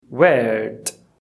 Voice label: "weird", English